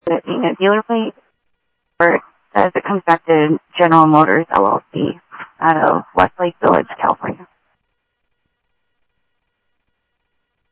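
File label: Sheriff West County, scanner audio trunk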